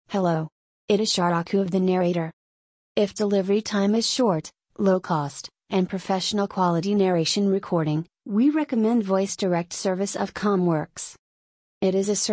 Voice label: speech eng F